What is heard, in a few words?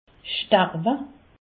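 "starwe", Haut Rhin; medecine; mourir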